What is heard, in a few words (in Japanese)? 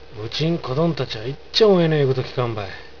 有田弁; うちんこどんたちゃぁ、いっちょん親の言う事きかんばい。; 我が家の子供達は、ひとつも親の言うことを聞かない。; 少しも、ひとつも